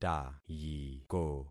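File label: "tayiko", concatenated syllables; Affixing experiment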